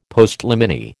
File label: "Postliminy", American